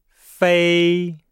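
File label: "fei", Chinese; Nihao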